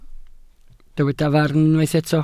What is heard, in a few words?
"farn", welsh